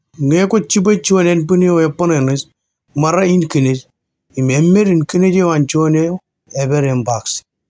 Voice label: marshallese male

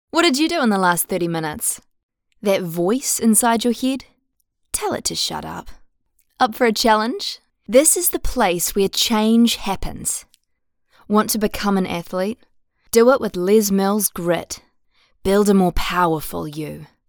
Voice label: accented english female

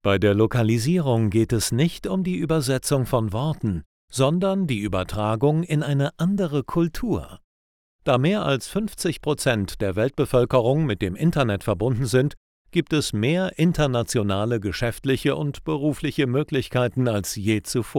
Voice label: german germany male

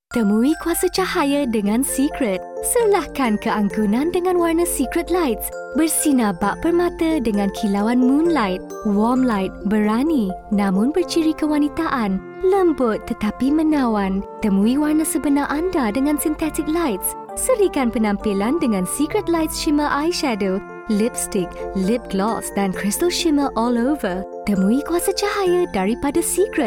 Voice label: malay female

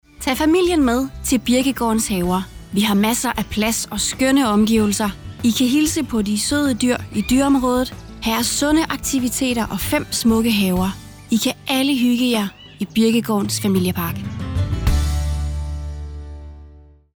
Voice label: danish female

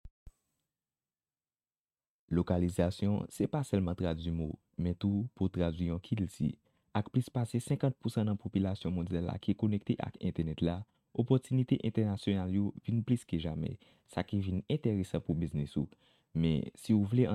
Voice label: haitian creole male

